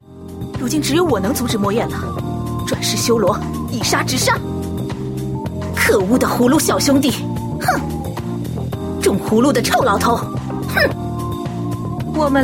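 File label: mature queen, female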